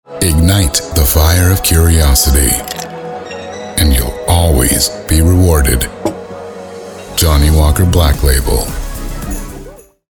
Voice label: Commercial Spot; JOHNNY WALKER - BLACK LABEL